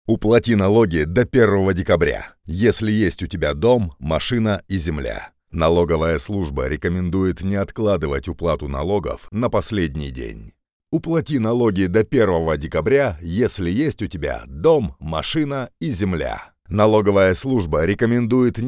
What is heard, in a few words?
radio; Nalogi